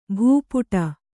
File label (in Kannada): "ಭೂಪುಟ", Kannada